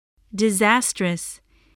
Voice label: "disastrous", english spelling

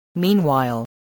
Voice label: "meanwhile", spelling voice

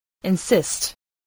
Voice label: "insist", voice spelling

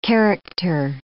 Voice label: "character", English

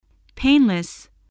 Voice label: "painless", English voice

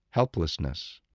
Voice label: "helplessness", English, spelling

